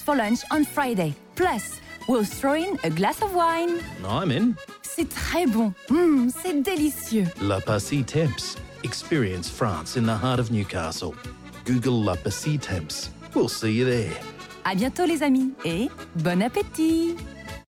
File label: female French English with French accent restaurant; le passe temps